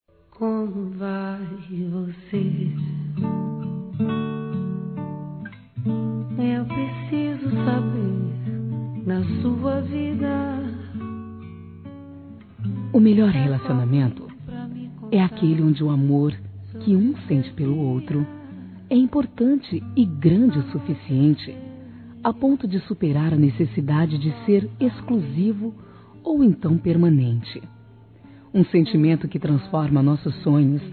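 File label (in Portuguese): Romant. amante, feminino; Telemensagens; Românticas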